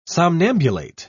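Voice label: "somnambulate", British English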